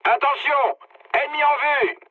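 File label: French enemy detected mood med; War Thunder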